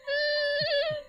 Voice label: ヘタレボイス; Flashpoint Ultimate 13; TheHouse

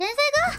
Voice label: 天才か？(舌っ足らず); 口癖

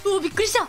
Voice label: びっくりしたぁ, 情けない声

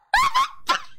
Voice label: ビビリボイス; Flashpoint Ultimate 13; TheHouse

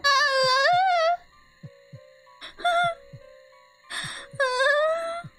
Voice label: ヘタレボイス; Flashpoint Ultimate 13; TheHouse

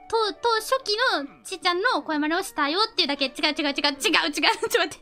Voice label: 動揺ボイス; 壺

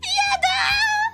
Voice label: "いやだぁ", 情けない声

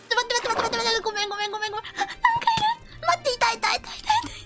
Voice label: 焦りボイス; マイクラ

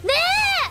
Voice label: ねぇ, 情けない声

